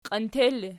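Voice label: "qientier" ergative, Archi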